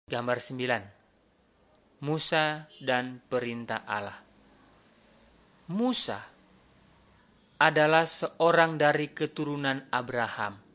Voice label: malay manado; good news

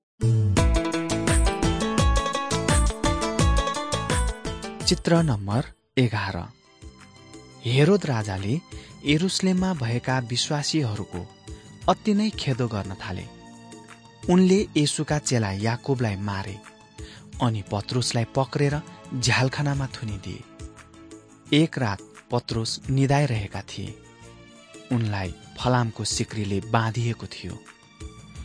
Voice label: acts of the holy spirit nepali

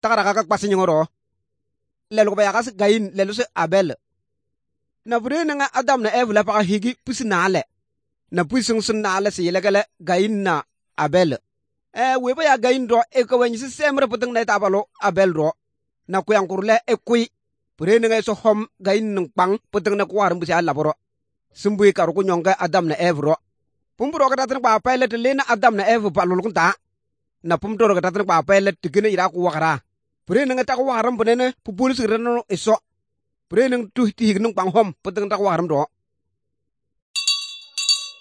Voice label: good news, kabiye bible

